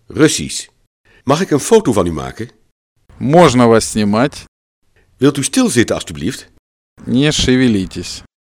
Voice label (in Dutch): russisch; Mag ik een foto van u maken?